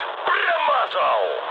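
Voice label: Russian bomb failure mood high, speech; War Thunder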